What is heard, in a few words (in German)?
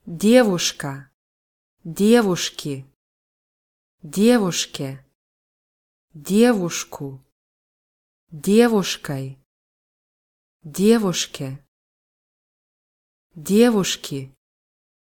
junge frau russisch